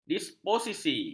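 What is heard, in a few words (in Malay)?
"disposisi", Malay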